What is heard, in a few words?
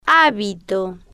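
"habito" Spanish, female, slow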